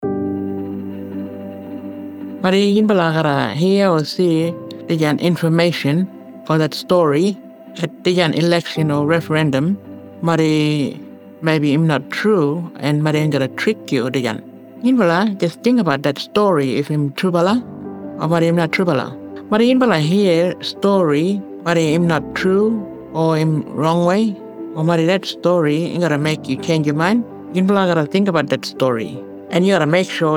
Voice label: East Side Kriol, Radio mix; indigenous; AEC Misinfo